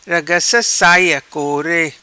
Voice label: "Ragaca Saia Core", Macanese

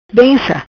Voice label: "Benca", Macanese; Bênção; Blessing

